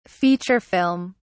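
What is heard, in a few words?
"feature film", British English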